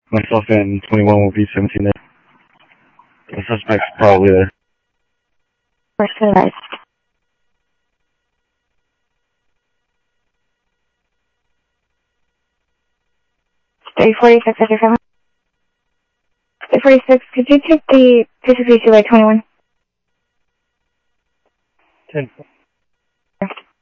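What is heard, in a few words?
Sheriff West County, scanner audio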